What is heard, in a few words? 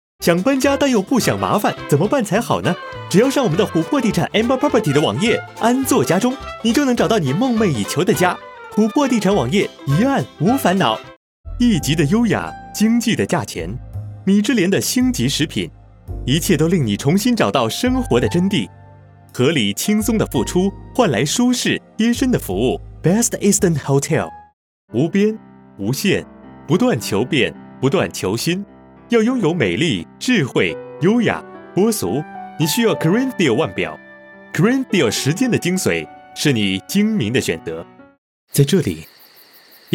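Mandarin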